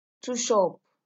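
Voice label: "tush up", Nigerian Pidgin, female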